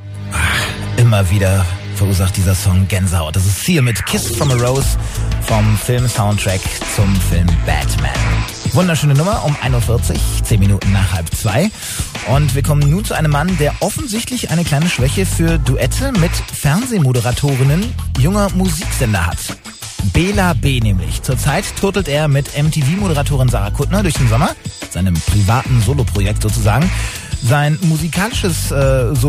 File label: Aircheck; UK Jump